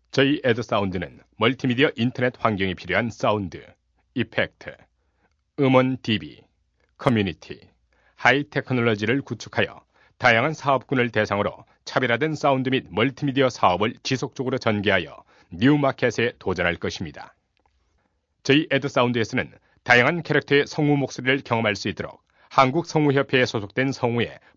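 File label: Korean, male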